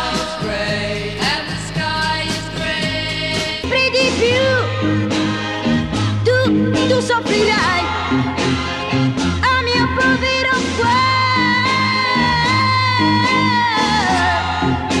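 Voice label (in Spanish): español locutor programa radio ascensor; programa de radio musical "El ascensor"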